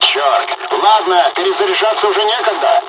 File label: dialog, dialogs russian; midway; War Thunder